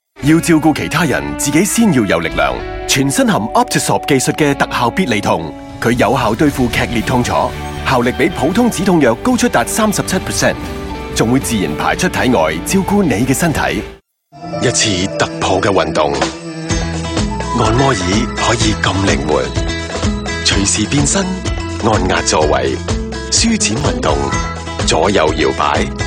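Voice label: Cantonese, TV commercial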